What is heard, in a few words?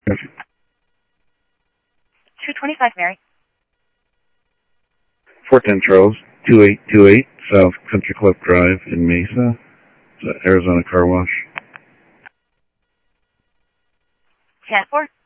Sheriff Central, scanner audio trunk; ThompsonPeakScot; MCSO Central